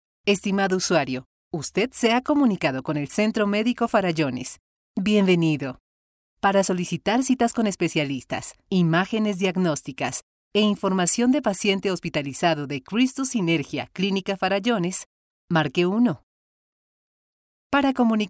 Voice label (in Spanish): IVR; Christus Sinergia